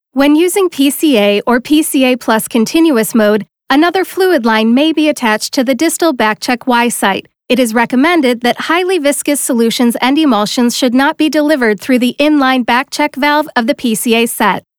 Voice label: Medical Narration Instructional